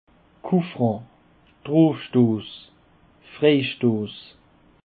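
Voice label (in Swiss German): "coufran", Alsatian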